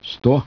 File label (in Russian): SPEECH, RUSSIAN